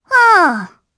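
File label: Vox Aff Japanese; Viska; kingsraid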